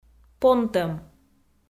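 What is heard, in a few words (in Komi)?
"понтӧм", Komi, female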